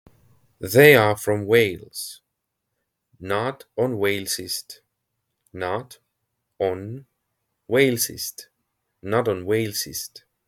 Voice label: They are from Wales, estonian; the talking estonian phrasebook